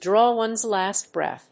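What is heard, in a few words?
"draw ones last breath", English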